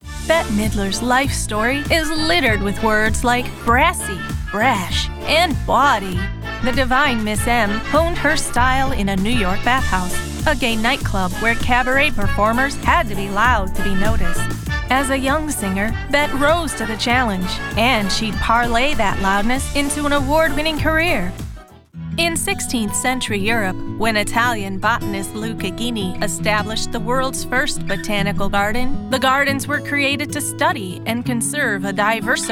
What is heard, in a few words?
Narration